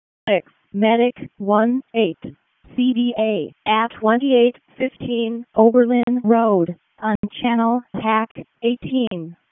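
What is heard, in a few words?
Wake County EMS ALERT, p25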